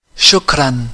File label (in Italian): Grazie in arabo